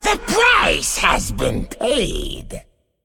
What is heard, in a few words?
Male Demon Play